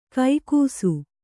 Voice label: "ಕೈಗೂಸು", Kannada

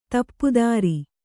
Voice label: "ದಪ್ತರು", Kannada

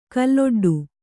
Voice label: "ಕಲ್ಲೊಡ್ಡು", Kannada

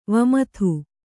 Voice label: "ವಿಮದ", Kannada

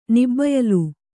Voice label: "ನಿಬ್ಬಯಲು", Kannada

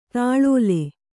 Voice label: "ತಾಳೋಲೆ", Kannada; tāḷōle; noun

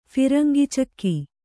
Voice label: "ಫಿರಂಗಿಚಕ್ಕಿ", Kannada

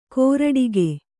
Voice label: "ಕೊರಟಿಗೆ", kannada

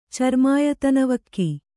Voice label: "ಚರ್ಮಾಯತನವಕ್ಕಿ", Kannada

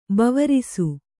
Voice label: "ಬೇವಾರ್ಸಿ", Kannada

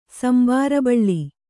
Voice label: "ಸಂಬಾರಬಳ್ಳಿ", Kannada

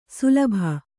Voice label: "ಶಲಭ", Kannada

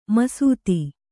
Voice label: "ಮಸೆತ", Kannada

